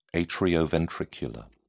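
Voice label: "atrioventricular", British English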